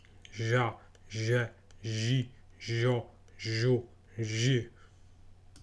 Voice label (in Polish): "ź", fonetyka